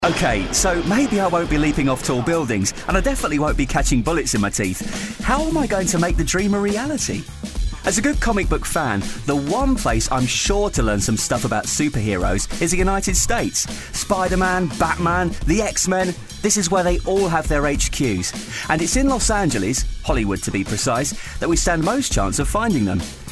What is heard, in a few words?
friendly